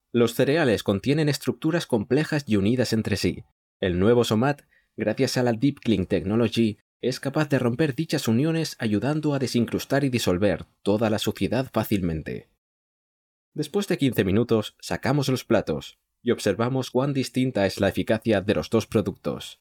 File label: SPANISH